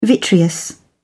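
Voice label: "vitreous", English, British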